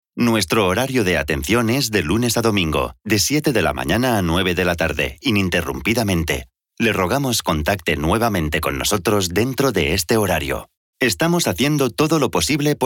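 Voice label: spanish interactive voice response; espanhol da Espanha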